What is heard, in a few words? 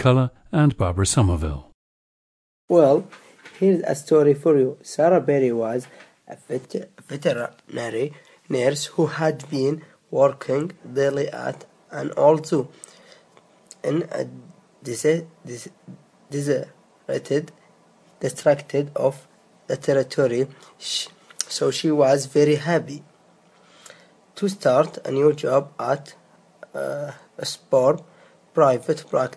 saudi arabia, dialects